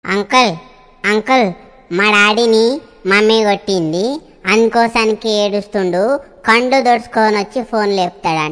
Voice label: Telugu Funny